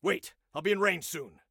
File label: Target Wait, Soldier Male; Soldier Male 02